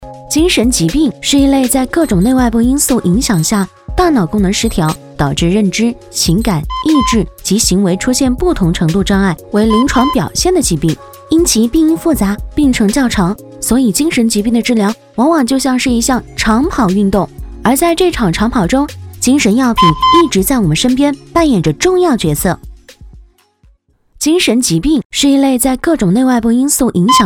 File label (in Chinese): 女 飞碟 精神疾病